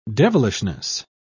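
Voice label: "devilishness", British English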